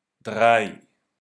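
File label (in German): "Drei", German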